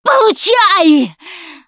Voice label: battlecry, russian, Survivor Voice Producer

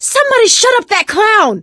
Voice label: see clowns, survivor voice; Left 4 Dead 2; producer

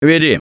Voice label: Lead On, russian; Gambler

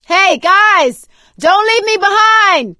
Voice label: call for rescue, survivor voice; Left 4 Dead 2; producer

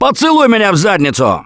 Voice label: reaction negative, russian; Gambler

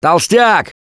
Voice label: warn boomer, russian; Voice; Gambler

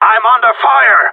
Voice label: radio taking fire; player vo gsg9

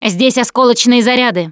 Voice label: explosive ammo, russian, Survivor Voice Producer

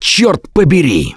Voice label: reaction negative, russian; Gambler

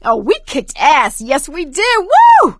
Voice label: hurrah, survivor voice; Left 4 Dead 2; producer

